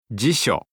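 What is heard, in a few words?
"jisyo", Japanese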